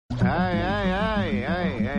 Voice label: "eh eh eh" dialogue; Mahesh Babu